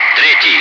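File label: Russian loud; Wingman; DCS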